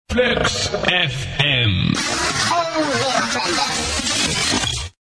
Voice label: Jingle; Flux Fm; Audio Fragmenten; Arkan Radio 2